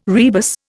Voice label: "rebus", Indian